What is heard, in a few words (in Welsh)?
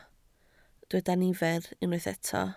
"nifer", Welsh